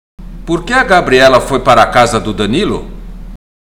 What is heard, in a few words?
Portuguese Listening; Portuguese Test